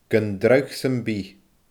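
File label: "gun dragh sam bith", Scottish Gaelic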